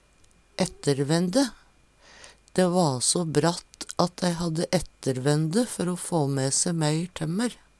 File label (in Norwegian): ettervende, Numedalsmål